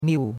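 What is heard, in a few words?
"miu4", Chinese; Cours-de-Chinois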